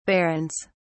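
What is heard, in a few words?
"barrens", British English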